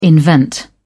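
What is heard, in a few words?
"invent", British English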